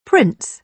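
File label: "prince", British English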